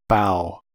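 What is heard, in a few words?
"bow", American English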